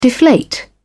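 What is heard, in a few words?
"deflate", British English, dictionary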